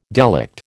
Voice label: "delict", American

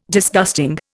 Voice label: "Disgusting", Indian

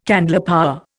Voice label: "candlepower", Indian

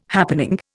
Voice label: "happening", Indian

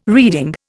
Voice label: "reeding", Indian

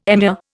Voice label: "emir", Indian

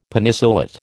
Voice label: "Penicillate", American

